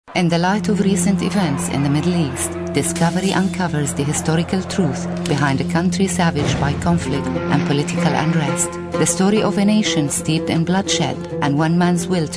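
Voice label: english arab female voice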